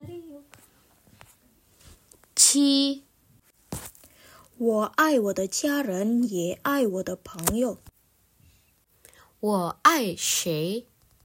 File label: Mandarin; mandarin placement test hsk1